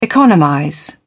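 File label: "economize", British English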